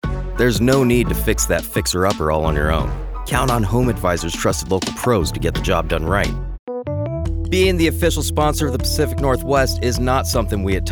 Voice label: Commercial